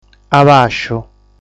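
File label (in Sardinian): "Abàsciu", Sardinian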